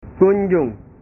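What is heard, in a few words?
"kunjung", Indonesian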